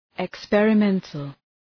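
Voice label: "experimental", English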